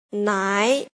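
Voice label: "nai", pinyin, second tone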